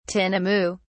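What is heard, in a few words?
"tinamou", British English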